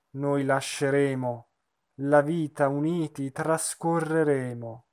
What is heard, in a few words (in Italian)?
espressivo; Traviata